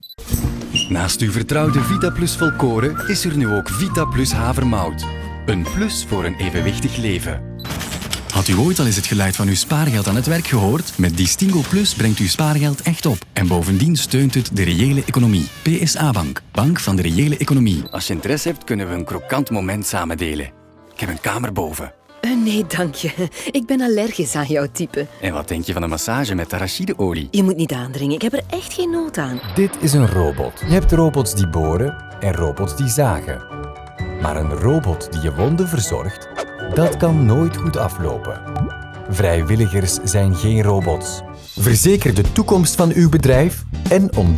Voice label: Flemish Voice Over, voice over